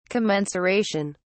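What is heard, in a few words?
"commensuration", English, British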